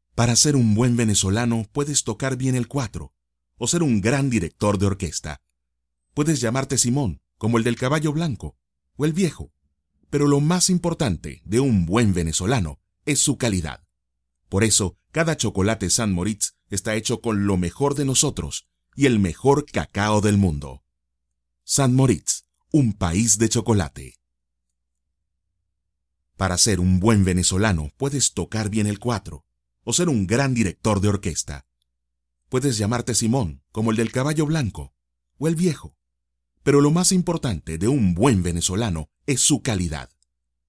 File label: Chocolates TV spot; St Moritz